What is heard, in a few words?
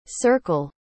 "circle", school instructions